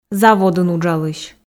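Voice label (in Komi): заводын уджалысь, Komi, female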